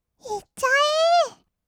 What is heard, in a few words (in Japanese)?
"いっちゃえー", kawaii voice; ASMR; 1200 kawaii voice!!; 音ゲー